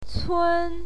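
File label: "cun", Mandarin Chinese, first tone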